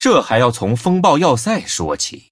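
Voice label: Male, Chinese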